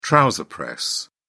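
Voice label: "trouser press", British English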